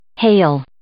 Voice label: "hale", English